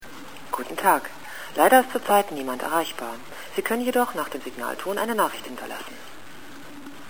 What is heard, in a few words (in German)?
ansage